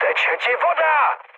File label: damaged water, speech cze, mood high; War Thunder